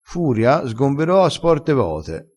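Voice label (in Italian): "sgombera", vocabolario dialettale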